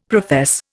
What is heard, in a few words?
"profess", Indian; Dictionary